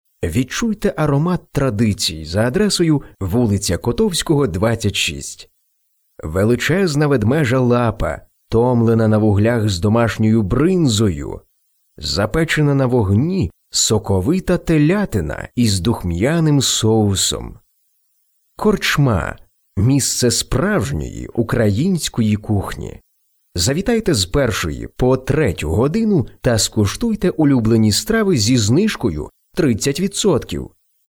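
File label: Ukrainian, male, voice over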